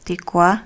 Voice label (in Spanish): Naranja, Mixteco